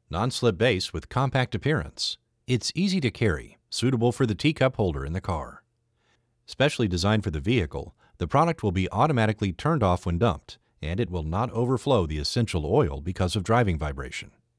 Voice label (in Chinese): 车用精油扩散器英文